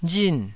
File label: "rin4", Taiwanese